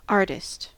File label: "artist", us